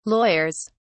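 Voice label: "lawyers", British English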